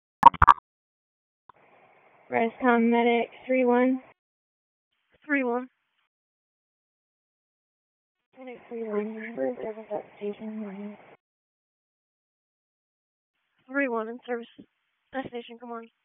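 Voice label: EMS DISP, radio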